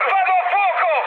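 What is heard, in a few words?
Italian on fire mood high, speech; War Thunder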